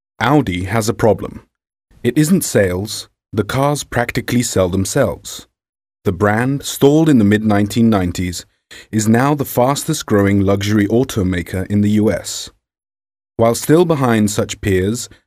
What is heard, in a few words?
British M, British English